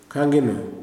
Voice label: "name", kuman

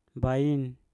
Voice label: "bain", Mpur; kebun

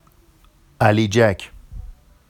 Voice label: "aliʤac", Vafsi; اَلیجَک; n glove

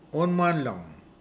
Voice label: spider, Mokilese

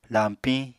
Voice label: "lañpiñ", Apatani

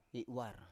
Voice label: "i7war", Biak

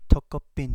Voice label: Kapingamarangi; cockroach

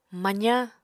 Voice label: "mazañ", Apatani

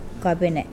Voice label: Mokilese heel

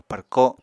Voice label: "párko", Apatani

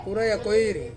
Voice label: "uraia koiri", Ansus; eleven

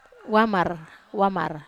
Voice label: "wamar", Wamesa

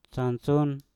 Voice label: "sansun", Mpur; baju

